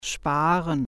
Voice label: "save", German

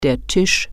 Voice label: "table", German

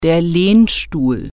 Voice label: "recliner", German

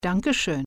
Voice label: "thank you", German

